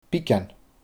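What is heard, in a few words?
"bigean", Scottish Gaelic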